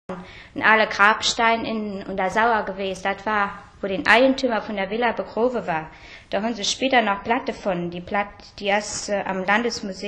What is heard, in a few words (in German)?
Moselfraenkisch, Mitteldeutsch